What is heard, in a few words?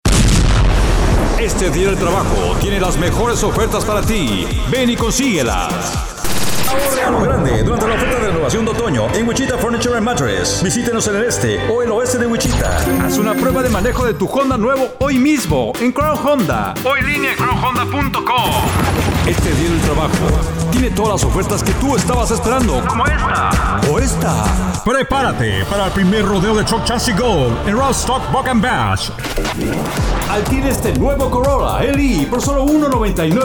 Male; Spanish (Mexican)